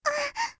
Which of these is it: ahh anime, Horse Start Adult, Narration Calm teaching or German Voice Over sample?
ahh anime